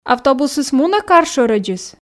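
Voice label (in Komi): "автобусыс мунӧ кар шӧрӧдзыс", female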